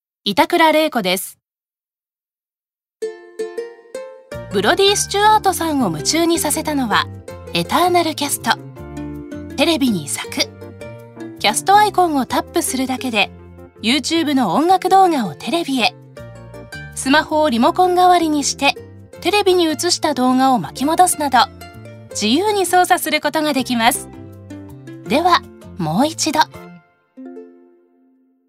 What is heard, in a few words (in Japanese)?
ナチュラル